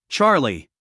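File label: "Charlie", US English, male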